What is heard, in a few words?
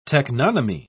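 "teknonymy", British English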